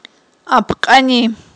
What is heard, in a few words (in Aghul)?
"апкьани", Aghul